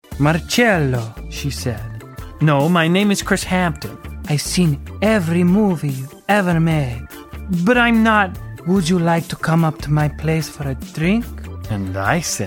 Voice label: Character